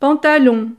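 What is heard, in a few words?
"pantalon", French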